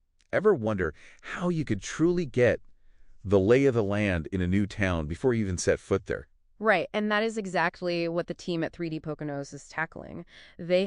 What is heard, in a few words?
phone recording